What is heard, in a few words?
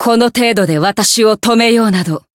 voice jp; milla